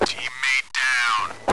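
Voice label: voice commands sounds; v igre counter-strike 1.6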